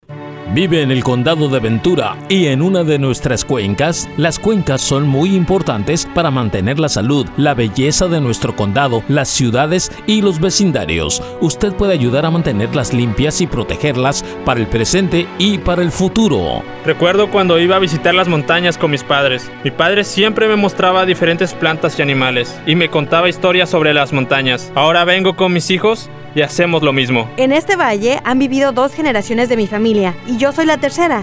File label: Radio Spanish